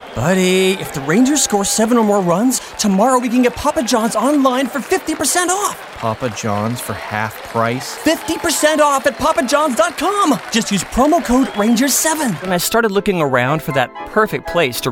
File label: Commercial